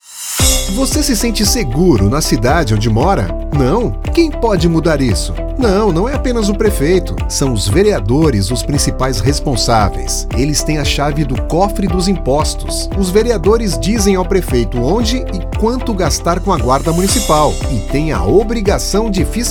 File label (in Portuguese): SPOT SEGURANCA; GRITA